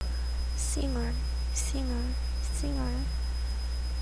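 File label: "singer", spoken word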